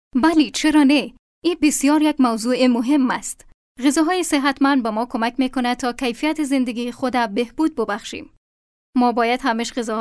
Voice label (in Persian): Dari Healthy diet training